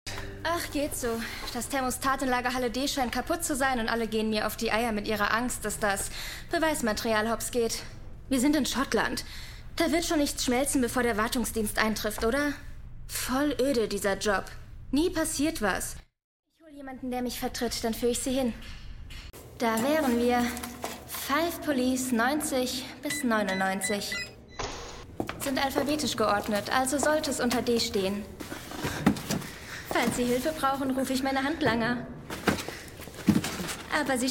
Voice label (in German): Serie Synchron; Karen Pirie